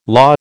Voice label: "law", American